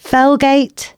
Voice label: announcements, female

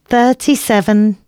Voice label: announcements female

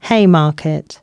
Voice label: announcements, female

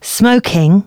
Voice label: female, announcements

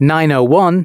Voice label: announcements, male

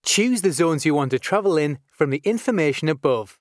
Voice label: Choose, announcements male

